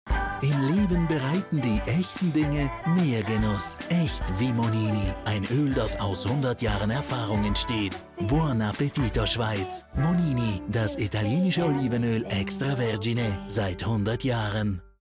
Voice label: tvc; Monini – TV-Spot